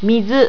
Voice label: "mizu", japanese